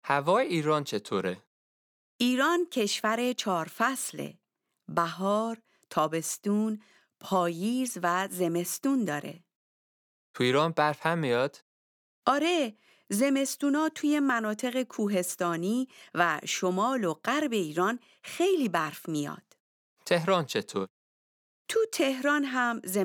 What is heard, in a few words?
Dialogue lesson Farsi